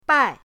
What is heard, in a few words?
"bai4", pinyin